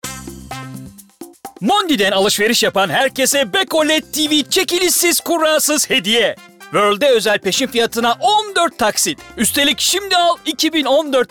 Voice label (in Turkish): Promosyon